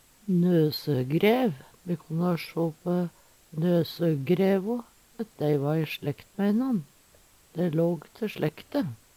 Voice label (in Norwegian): nosograev, Numedalsmål; Nøsøgrævo hørte slekte te. Dæ va`kje vanskle o sjå at dei va i slekt.